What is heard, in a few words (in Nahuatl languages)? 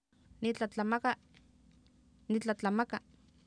"tlatlamaka", Oapan, female; Oapan minimal pairs 1998